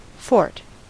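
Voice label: "fort" US English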